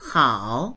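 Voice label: "hao3", Mandarin Chinese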